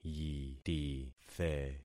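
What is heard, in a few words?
"yitithe", concatenated syllables; Affixing experiment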